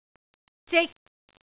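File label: "zik3", Cantonese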